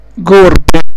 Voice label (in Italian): "gorpe", dialetto; gorpe volpe